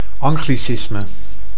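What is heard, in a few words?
"anglicisme", Dutch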